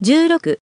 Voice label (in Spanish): numero japones 16